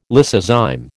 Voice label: "lysozyme", American